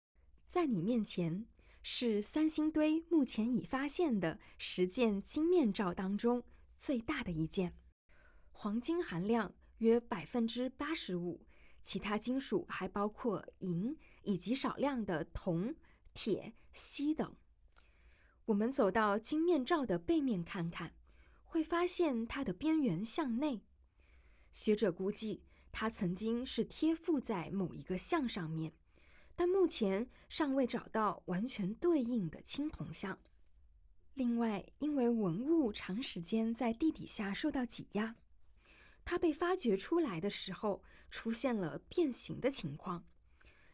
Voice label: Mandarin, audio-guide; gazing at sanxingdui

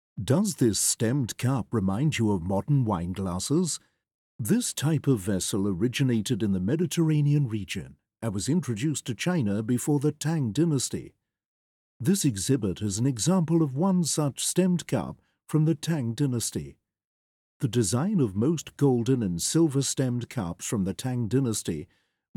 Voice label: ENG; audio guide

